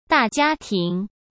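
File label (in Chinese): "大家庭", Mandarin Chinese; chinese flashcards; hsk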